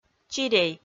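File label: "чирей", Komi, female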